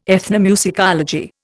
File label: "ethnomusicology", Indian